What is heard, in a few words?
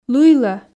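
Komi sentences, female; лыйлӧ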